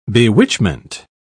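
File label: "bewitchment", British English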